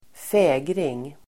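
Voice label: "fägring", Swedish; Uttal: [²f'ä:gring]; bloom, beauty; skönhet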